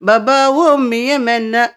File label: OLD WOMAN, Arabian Traditions